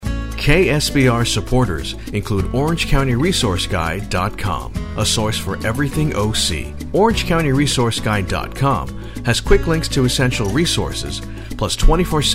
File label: Commercials